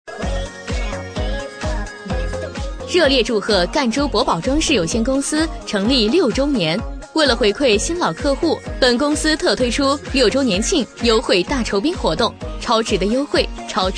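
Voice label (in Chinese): 女促销 装饰促销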